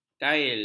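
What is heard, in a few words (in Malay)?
"kail", Malay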